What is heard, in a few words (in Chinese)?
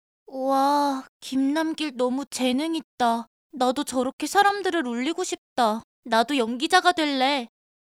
男童声